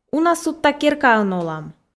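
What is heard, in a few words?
"уна судта керкаын олам", Komi, female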